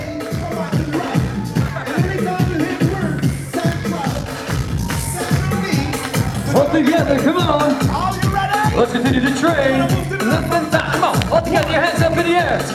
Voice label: mic test auto; mic broken

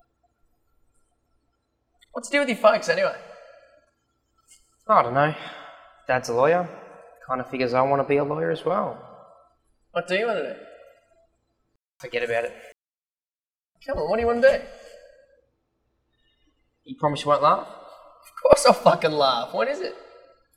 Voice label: happy sundaes dialogue sample clean mono; repair adr

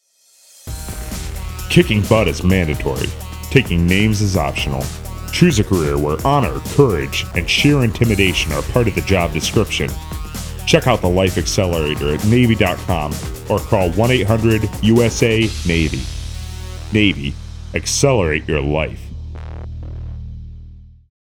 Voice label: voice over, navy

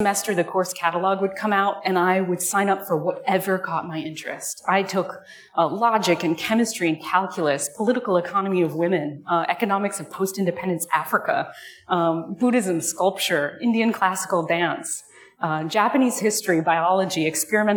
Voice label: mic pa echo deverb

